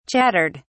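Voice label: "chattered", British English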